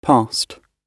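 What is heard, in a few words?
"past", British English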